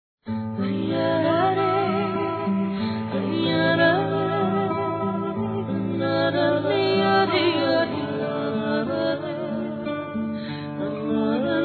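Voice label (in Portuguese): Telemensagens, Feminino; encontrei em você um amigo; Amizade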